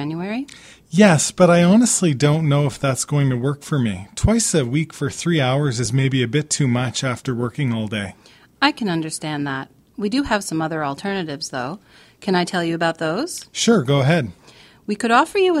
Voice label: dialogue spanish course